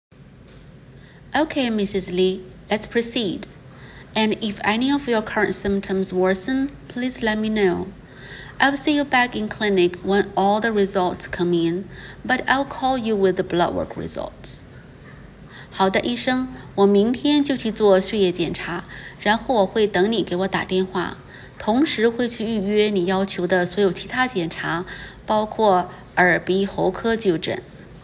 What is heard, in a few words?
Medical Certified Oral Mandarin Practice